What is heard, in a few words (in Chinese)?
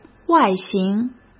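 "外形", Mandarin Chinese; hsk; chinese flashcards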